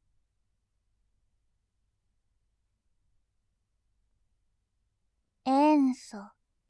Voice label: 「塩素」, ASMR

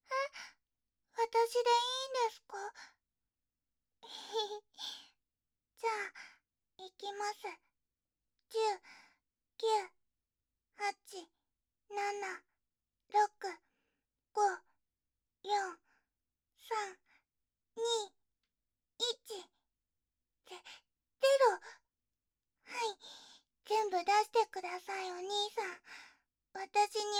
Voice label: ゴール 少女に, ASMR

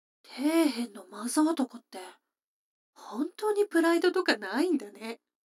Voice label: 女の子台詞, ASMR

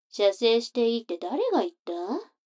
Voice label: 女の子台詞, ASMR

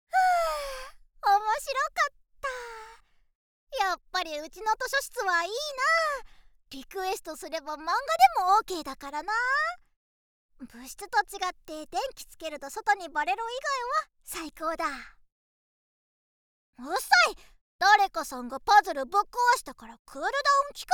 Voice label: 声のみ ノイズ除去なし; ナマイキでかわいくてご主人様なヴァンパイア; めがみそふと; 効果音なしver

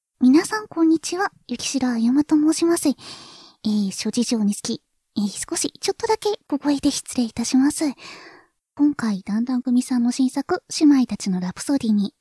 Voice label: オーディオコメンタリー

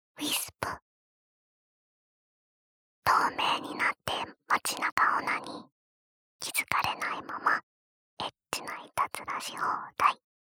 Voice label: タイトルコール, ASMR

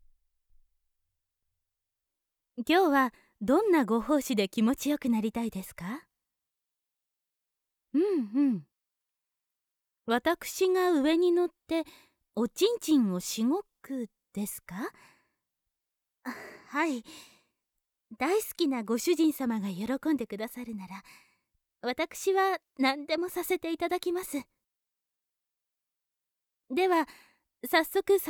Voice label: メイドのささやき

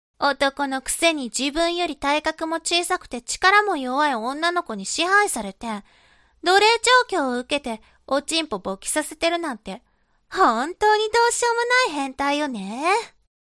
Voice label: 懲罰中音声, ASMR

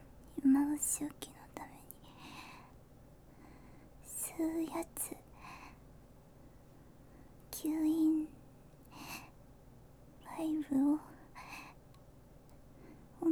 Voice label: 吸うやつ挿入、慣らすけどなかなか入らない奴隷ちゃん, ASMR

